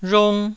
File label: "rhong1", taiwanese